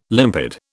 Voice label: "limpid", British